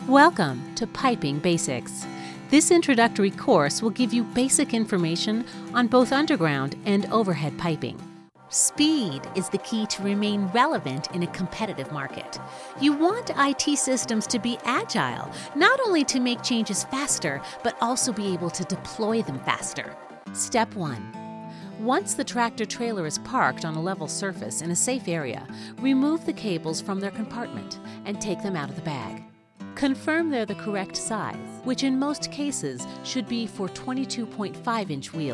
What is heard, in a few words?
Training Tutorial